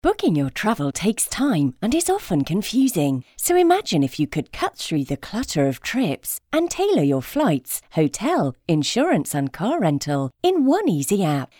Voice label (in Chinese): 外籍英女 富有活力 app广告